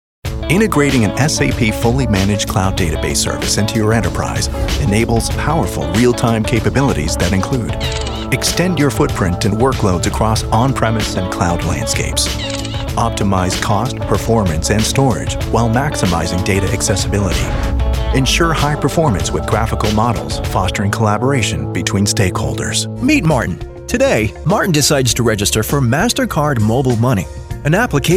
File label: Corporate